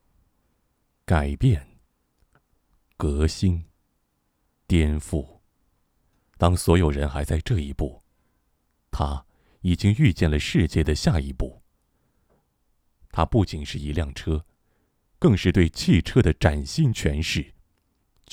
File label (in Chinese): 试音广告大气